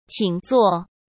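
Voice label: "请坐", Mandarin Chinese; chinese-flashcards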